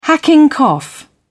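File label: "hacking cough", British English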